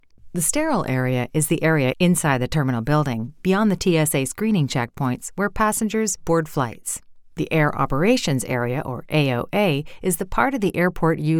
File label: Air Security Natural Instructional